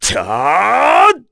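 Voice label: Vox Casting, korean; Bernheim; kingsraid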